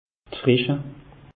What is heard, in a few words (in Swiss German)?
"frische", alsace; meteo